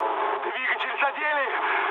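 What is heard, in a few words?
Russian damaged engine mood med, speech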